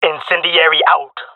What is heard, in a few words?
throwing fire, jungle male; player vo; Counter Strike Model Pack; CS:GO